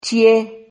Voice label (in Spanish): "chie", Silabas